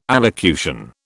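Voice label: "allocution", British English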